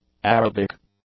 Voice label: "Arabic", English; adjectives